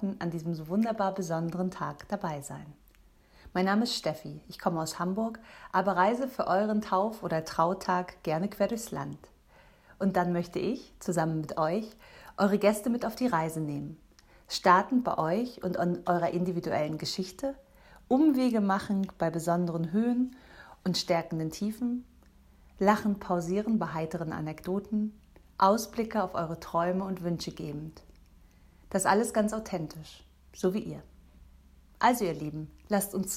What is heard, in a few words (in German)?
freie rednerin Sprachprobe Freude